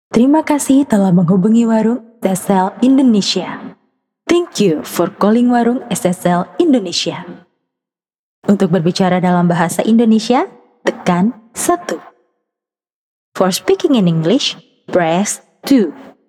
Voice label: FORMAL, Cheerful, Real, Energetic, Conversational, Professional, Believable, Intelligent, Warm; Indonesia